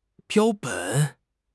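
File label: "标本", Mandarin Chinese; HSK; HSK6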